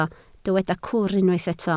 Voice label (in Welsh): "cwr" Welsh